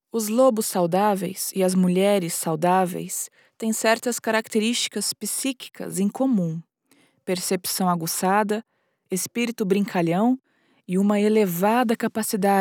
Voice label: audiobook narrator moderately engaged first person narration, female Brazilian Portuguese voiceover; acx; Women Who Run with the Wolves